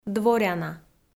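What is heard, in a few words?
"дворяна", Komi, female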